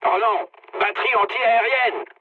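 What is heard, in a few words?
French damaged aa mood med; War Thunder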